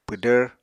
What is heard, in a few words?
"pwdvr", Apatani